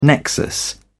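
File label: "nexus", British English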